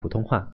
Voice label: "putonghua", Mandarin